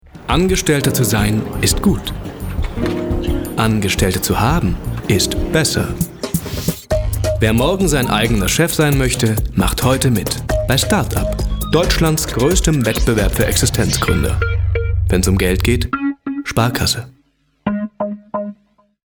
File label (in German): tv spot; sparkasse